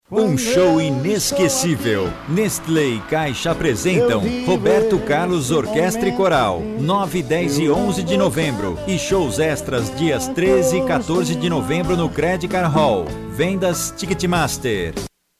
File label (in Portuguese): Chamada para a peça, Locução; 30 segundos; Mudança de Hábito